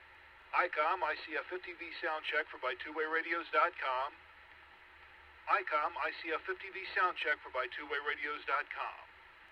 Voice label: icom ic f50v; radio samples